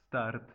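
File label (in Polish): "start", Polish; multimedia glossaries; transport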